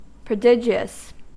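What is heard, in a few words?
"prodigious", American English; pronunciations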